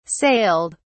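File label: "sailed", British English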